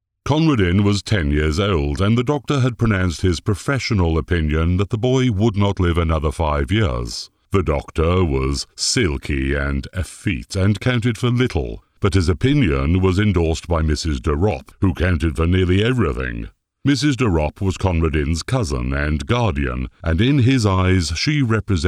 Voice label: Audio Book Narration

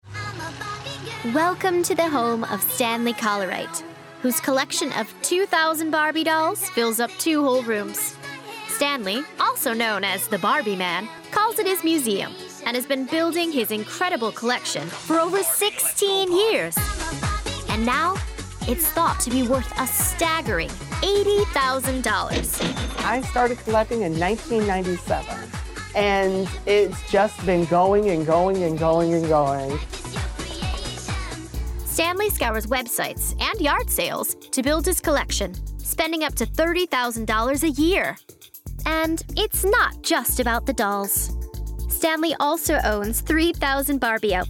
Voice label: Doc reality-style chatty; Barbie

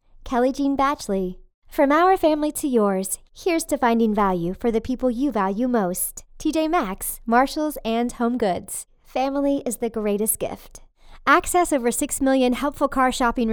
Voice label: Home Studio Sample

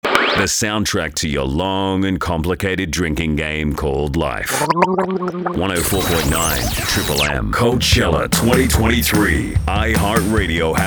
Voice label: Imaging Promo

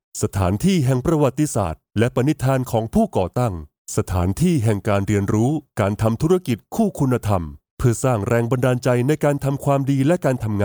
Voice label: Narration Male Informative Clear and Deep

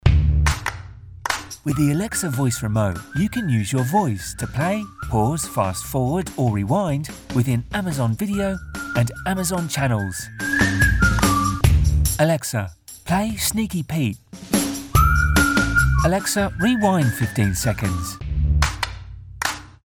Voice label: Radio Ad

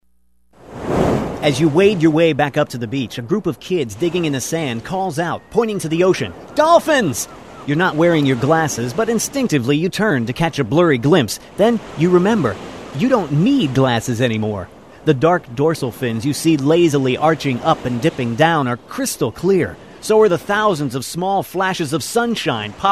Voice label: Radio commercial

